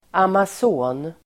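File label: "amason", Swedish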